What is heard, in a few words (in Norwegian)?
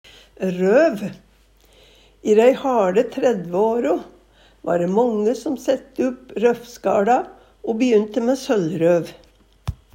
røv, Numedalsmål